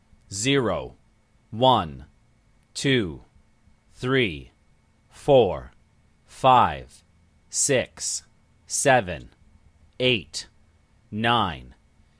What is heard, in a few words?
0-9 Male Vocalized